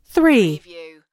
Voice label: US female phone prompt; lynne publishing